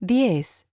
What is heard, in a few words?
Spanish Number